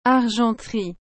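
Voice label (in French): "argenterie", French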